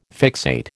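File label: "fixate", American English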